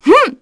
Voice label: Vox, kr; Artemia; kingsraid